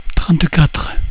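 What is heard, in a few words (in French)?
"trente quatre", French; cat numeration doigts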